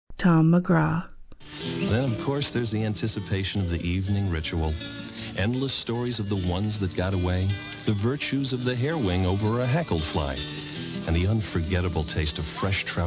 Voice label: male voice